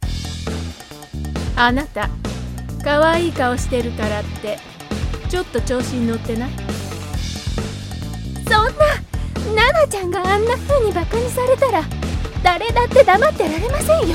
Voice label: Japanese animation